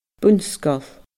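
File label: "bun-sgoil", Scottish Gaelic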